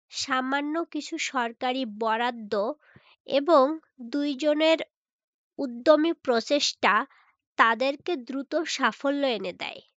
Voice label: Female